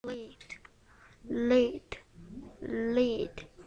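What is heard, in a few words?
"lead", spoken word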